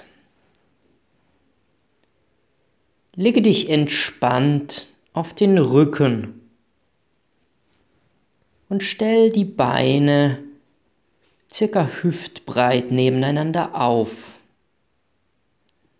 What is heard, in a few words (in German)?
Entspannung Ruecken relaxt; Vitale Akademie